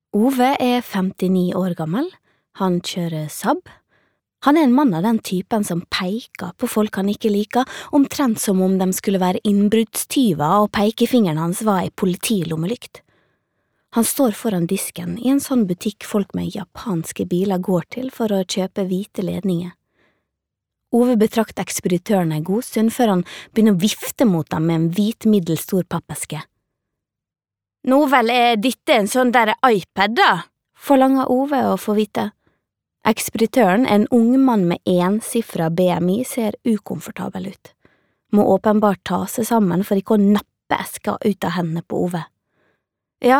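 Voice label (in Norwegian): lydbok, Norwegian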